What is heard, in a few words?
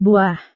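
"buah", Indonesian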